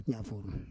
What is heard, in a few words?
"yafor", Roon